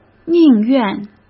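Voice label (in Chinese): "宁愿", Mandarin Chinese; hsk; chinese-flashcards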